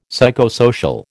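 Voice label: "psychosocial", American English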